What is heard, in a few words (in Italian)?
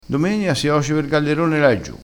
"gallerone", crespinese